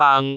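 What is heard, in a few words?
"baang3", Cantonese; googleB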